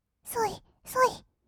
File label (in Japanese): "そいそい", kawaii voice; 音ゲー